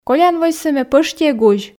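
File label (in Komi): колян войсӧ ме пӧшти эг узь, Komi, female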